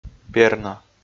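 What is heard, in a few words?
"перна", Komi, male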